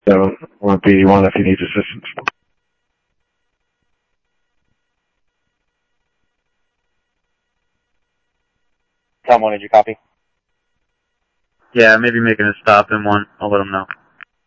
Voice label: Scottsdale PD Scottsdale Police, scanner audio